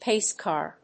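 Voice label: "pace car", English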